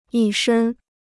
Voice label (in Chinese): "一身", Chinese